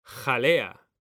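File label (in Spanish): "jalea", Spanish, male; mekanta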